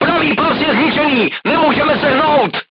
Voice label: voice message right track knocked down, crew tanks czech